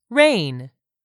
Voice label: "rain", English; 3000 words